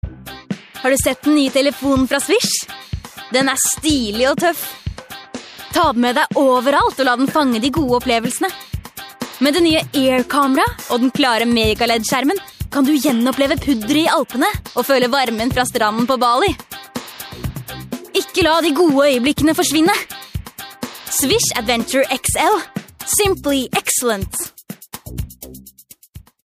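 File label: norwegian female commercial, voice demos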